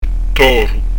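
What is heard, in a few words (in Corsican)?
"toru", Corsican; Son "appuyé"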